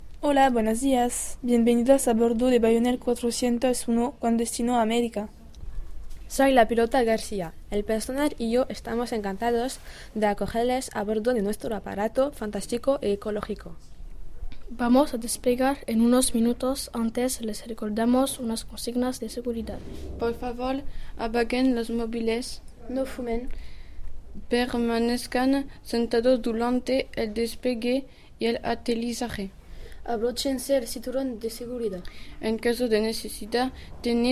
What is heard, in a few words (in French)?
annonces espagnol